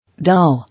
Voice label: "Doll", English